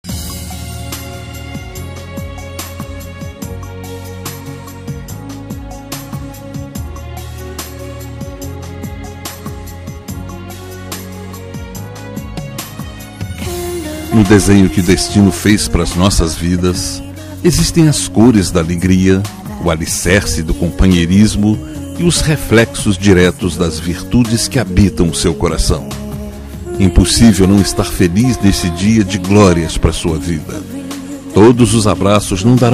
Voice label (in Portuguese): cunhada masc, Voz Masculino; Telemensagens para todas as ocasiões